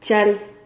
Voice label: "chal", Silabas

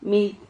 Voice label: "mid", Silabas

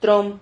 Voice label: "trom", Silabas

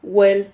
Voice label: "huel", Silabas

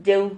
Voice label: "yeu", Silabas

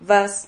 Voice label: "vas", Silabas

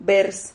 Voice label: "vers", Silabas

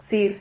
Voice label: "xir", Silabas

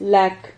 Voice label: "lac", Silabas

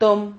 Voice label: "tom", Silabas

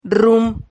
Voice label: "rrum", Silabas

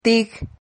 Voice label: "tig", Silabas

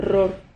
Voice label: "rror", Silabas

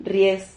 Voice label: "rries", Silabas

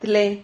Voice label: "tle", Silabas

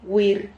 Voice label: "huir", Silabas

